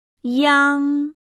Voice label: "iang", pinyin; PinyinChart